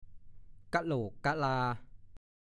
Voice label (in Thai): "ก้ะโหลกกะลา", ภาษาโคราช